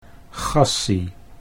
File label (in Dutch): "gassie", Dutch